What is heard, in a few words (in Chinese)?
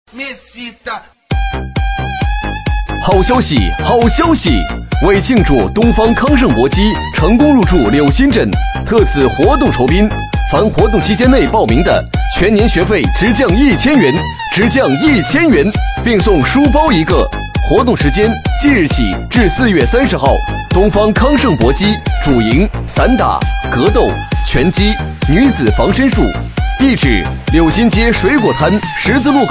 男 促销, 促销男配; 搏击